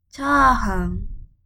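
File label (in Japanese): "チャーハン", Japanese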